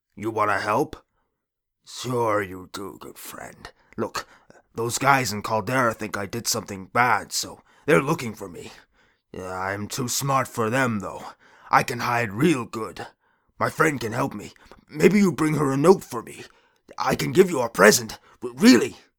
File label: orc